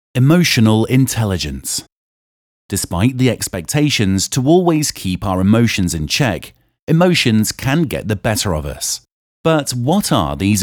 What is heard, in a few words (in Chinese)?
外籍英男 深沉干音